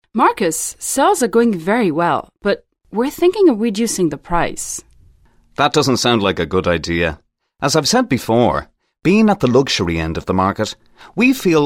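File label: Dialogue EN; Dialogue Samples - English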